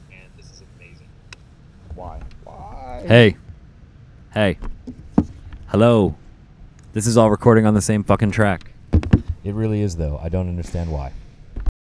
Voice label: Male Voice; NYCC 2013